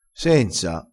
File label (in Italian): "senza", vocabolario dialettale